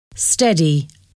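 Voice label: "steady", English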